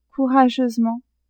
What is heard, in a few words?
"courageusement", French; adverbs